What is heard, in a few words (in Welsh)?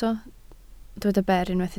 "ber", Welsh